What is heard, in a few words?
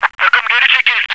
fallback, radio